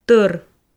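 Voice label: "тӧр", Komi, female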